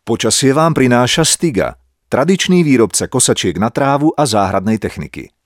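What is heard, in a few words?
Male Slovak commercial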